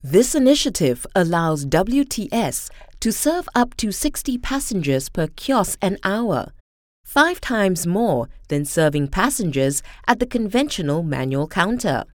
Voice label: Asian English Airport; Changi-Airport